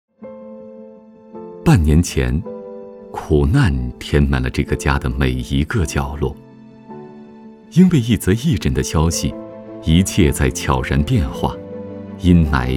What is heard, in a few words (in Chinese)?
纪录片